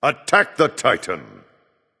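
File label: attack, male; smite; anhur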